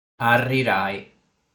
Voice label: "Arrirai", sardu

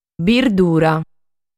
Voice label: "Birdura", sardu

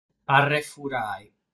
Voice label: "Arrefurai", sardu

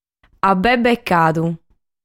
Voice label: "Abbebbecadu", sardu

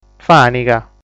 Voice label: "Fàniga", sardu

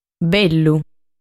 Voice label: "Bellu", sardu

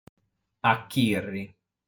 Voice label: "Achirri", sardu

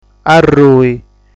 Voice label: "Arrui", sardu

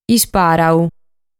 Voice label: "Ispàrau", sardu

